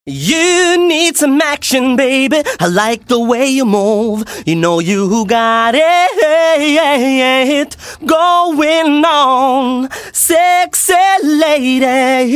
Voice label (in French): comp1, compression classique; ratio 4:1; Attack environ 10msec et Release = 100msec; voix; Comment engraisser une voix